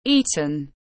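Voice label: "Eaten", English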